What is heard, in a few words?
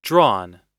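"drawn", American English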